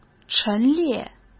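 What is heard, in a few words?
"陈列", Mandarin Chinese; hsk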